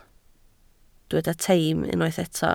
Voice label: "teim", Welsh